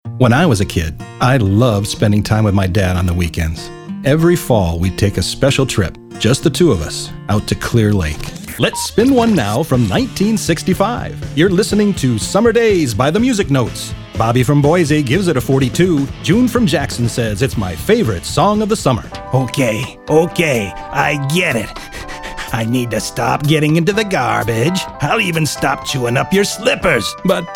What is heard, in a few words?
Commercial